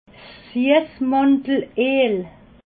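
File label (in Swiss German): "siessmandeleel", Alsatian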